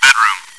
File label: chitchat, bot, voice commands; svencoop